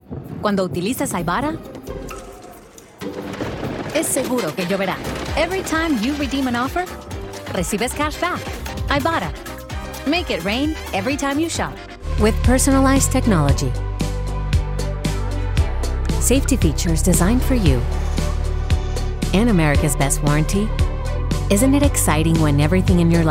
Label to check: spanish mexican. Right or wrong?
Right